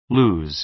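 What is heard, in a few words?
"lose", American English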